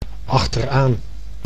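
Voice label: "achteraan", Dutch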